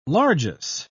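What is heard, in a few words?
"largess", British English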